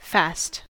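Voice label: "fast", US English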